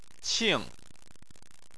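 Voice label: "qing", Chinese, tone 4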